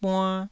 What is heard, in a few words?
"buann1", taiwanese Syllables